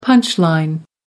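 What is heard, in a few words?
"punch line", British English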